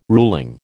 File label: "ruling", American